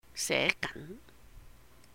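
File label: "sé gán", writing, Cantonese; 寫緊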